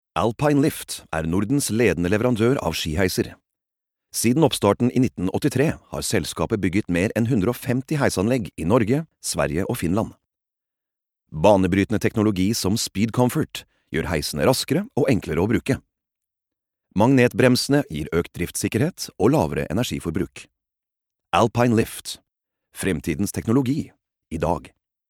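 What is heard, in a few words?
Norwegian corporate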